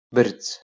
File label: "berdz", Kurdish